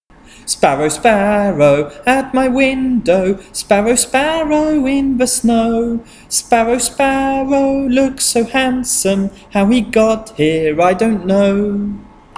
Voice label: Medial Long Vowels Chant ow

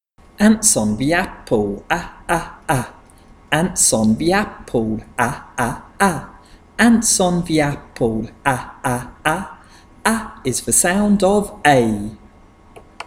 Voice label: Vowel Chant a

